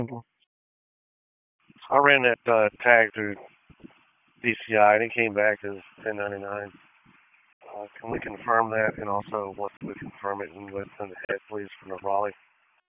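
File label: Dispatch, p25; WSO DISP 1; wake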